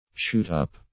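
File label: "shoot-up", English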